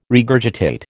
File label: "regurgitate", American